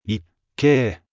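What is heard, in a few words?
anime, Japanese, Hilarious Anime Quotes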